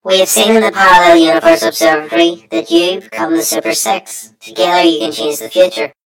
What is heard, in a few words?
story voiceover; yumpod